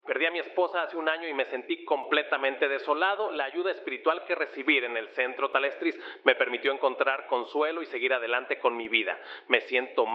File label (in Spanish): hombre